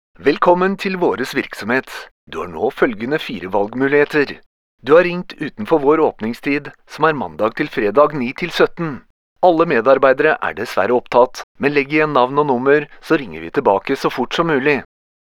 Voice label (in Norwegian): norsk mand; formel